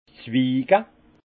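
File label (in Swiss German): "zwiga", alsace Haut-Rhin; vin; greffe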